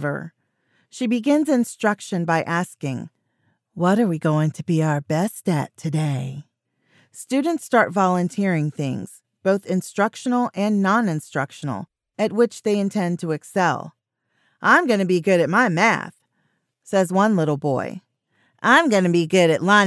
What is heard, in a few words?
Narrative with Multi Character Age Dialogue